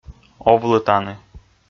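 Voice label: "овлы тані", Komi, male; kpv audio dict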